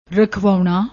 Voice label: "rakhvauna", Punjabi